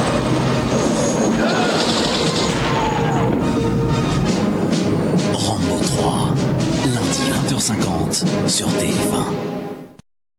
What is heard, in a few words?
promo; tf1; rambo3